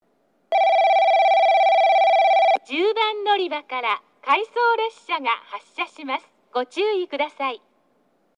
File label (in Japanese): 10番のりば発車放送（回送列車）; takeo onsen